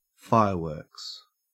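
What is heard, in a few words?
"fireworks", Australian English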